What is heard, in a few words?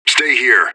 radio bot hold; fbihrt